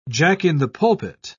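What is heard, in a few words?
"jack-in-the-pulpit", British English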